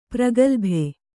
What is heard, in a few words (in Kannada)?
"ಪ್ರಗಲ್ಭೆ", Kannada